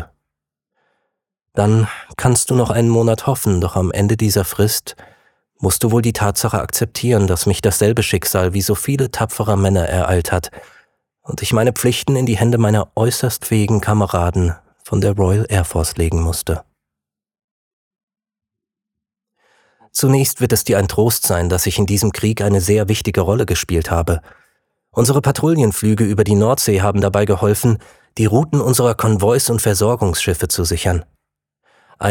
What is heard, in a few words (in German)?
brief an seine mutter, sprecher